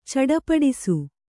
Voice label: "ಚಟಪಟಿಸು", Kannada